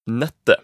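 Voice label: "nettet", Norwegian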